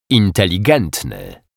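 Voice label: "inteligentny", Polish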